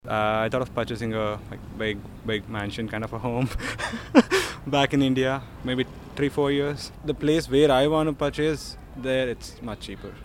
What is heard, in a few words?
Homebuying Voxpop